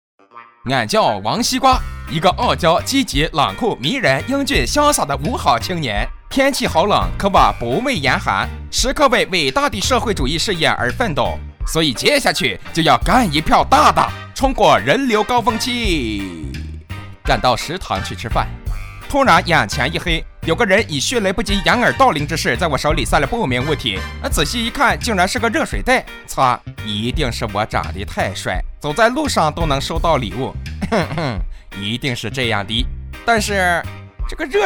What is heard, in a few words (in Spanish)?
Locutor varón chino